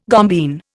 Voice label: "gombeen", Indian

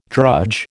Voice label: "drudge", American

